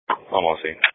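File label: Police Patrol (Dispatch)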